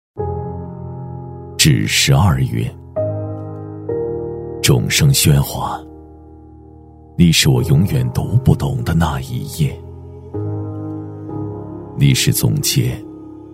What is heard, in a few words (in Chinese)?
男 走心独白, 喊麦激情 大气浑厚; 男W3-走心独白